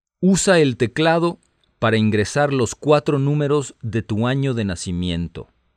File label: Spanish, hotline